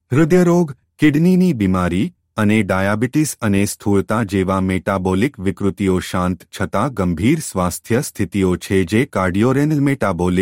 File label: video Male Gujarati